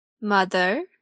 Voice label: "mother", English

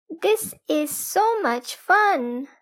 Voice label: dialogue, conservation of water

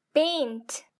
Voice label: "paint", English; grade3; fun with sentence

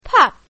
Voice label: "pup", English; grade1; basic sounds 1

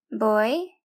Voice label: "boy", English; grade1

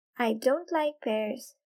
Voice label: pear don't like, girl; grade1; english; what do you like

